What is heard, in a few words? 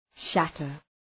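"shatter" English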